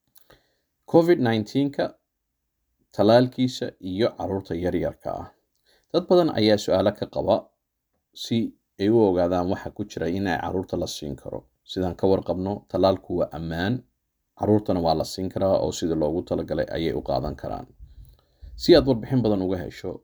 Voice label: psa announcement